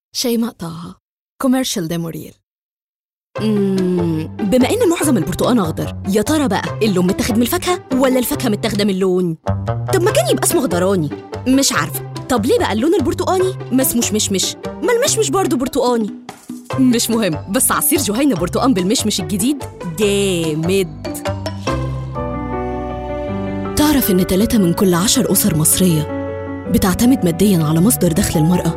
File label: Commercial Egyptian Arabic